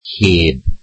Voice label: "without", Welsh

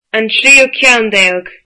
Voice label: thirteenth, irish

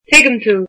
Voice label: I understand you, irish

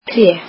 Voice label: "trees", Icelandic

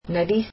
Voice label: "nose", Spanish

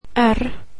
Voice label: "r", swedish alphabet

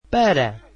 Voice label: portuguese to

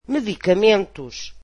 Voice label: portuguese medicines

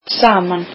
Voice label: icelandic together

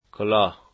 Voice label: "hat", dari

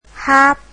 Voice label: vietnamese alphabet h

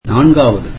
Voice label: tamil fourth

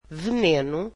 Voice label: portuguese poison

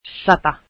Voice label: one hundred, finnish

